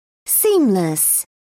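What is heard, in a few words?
"seamless", British English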